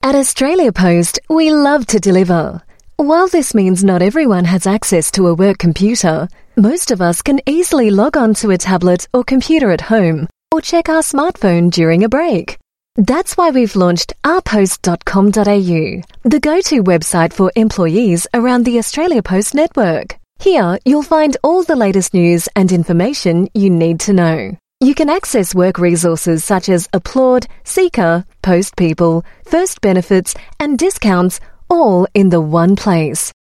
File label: Mid Atlantic English Explainer Video Narration, Australian Female